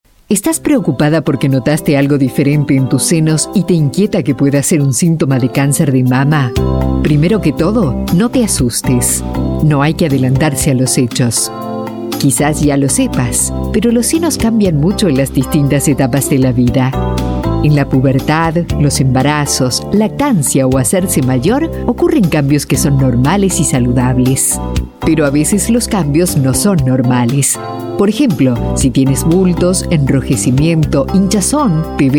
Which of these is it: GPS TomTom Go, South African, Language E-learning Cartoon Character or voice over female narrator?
voice over female narrator